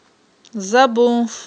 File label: "забунф", Aghul